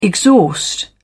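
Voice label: "exhaust", British English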